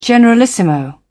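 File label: "generalissimo", British English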